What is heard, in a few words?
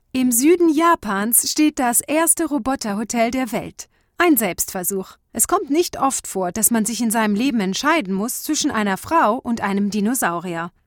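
German narration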